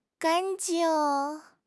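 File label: "gan1 jing4", Mandarin Chinese; HSK3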